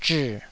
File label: "zhii3", Taiwanese